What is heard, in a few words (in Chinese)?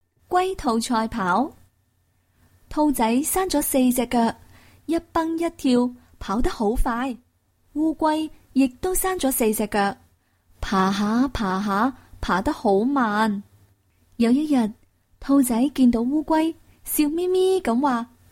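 女粤16 港式粤语广式粤语 娓娓道来 积极向上 时尚活力 亲切甜美; Educational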